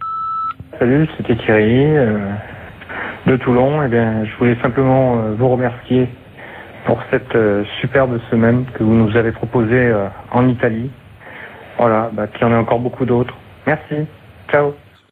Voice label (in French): répondeur; Annees de plomb